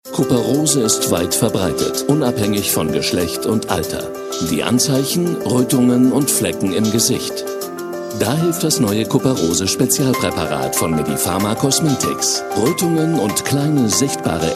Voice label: de commercial